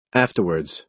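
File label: "afterwards", American English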